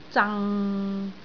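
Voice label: "zang6", Cantonese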